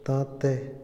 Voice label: "ganar", Otomí, hombre; INALI

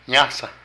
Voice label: Camino, Zapoteco de Petapa; Moderno; Vocabulary

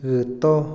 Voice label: nueve, Otomí, hombre; Cantidad; INALI

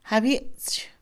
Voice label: extranjero, Mixe, Mujer; INALI

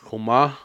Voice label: "hijo", Cucapá; Parentesco

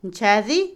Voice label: estudiante, Otomí, mujer; INALI

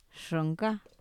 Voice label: barranco, Mazateco; INALI

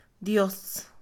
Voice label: Mixe, Mujer; dios; Religión; INALI

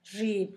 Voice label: rodilla, Zapoteco; Cuerpo; San Pedro Mártir; INALI

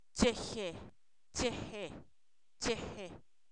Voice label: día, Zapoteco, san miguel albarradas; fenomenos naturales

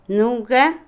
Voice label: Otomí, mujer; yo; Parentesco; INALI

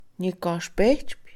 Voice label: jurar, Mixe, Mujer; INALI

